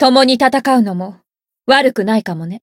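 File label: Voice win Japanese; herena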